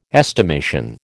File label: "estimation", American